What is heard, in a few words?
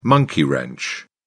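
"monkey wrench", English, British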